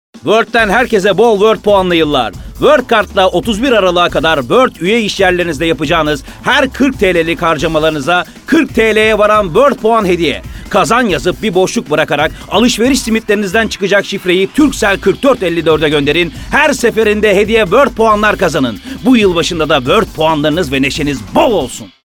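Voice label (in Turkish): kampanya, seslendirme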